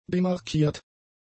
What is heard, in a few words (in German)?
"demarkiert", german; adjectives